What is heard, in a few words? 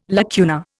"lacuna", Indian